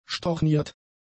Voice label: "storniert", German; adjectives